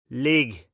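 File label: "ligh", Proto-Indo-European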